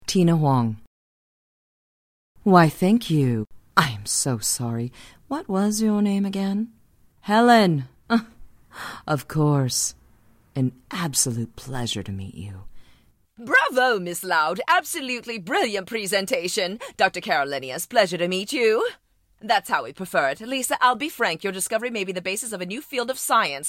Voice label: animation; Innovative LA